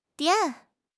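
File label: "dian3", Mandarin Chinese; 汉语口语新时代-初级下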